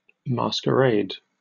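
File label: "masquerade", British English; pronunciations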